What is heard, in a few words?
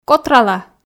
"котрала", Komi